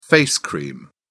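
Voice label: "face cream", British English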